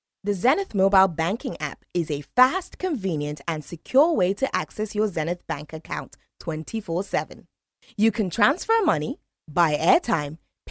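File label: African English, Commercial; GoLocalise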